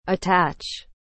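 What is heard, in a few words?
"attach", English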